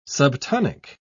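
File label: "subtonic", British English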